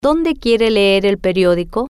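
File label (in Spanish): Donde Quiere Leer Periodico, spanish, female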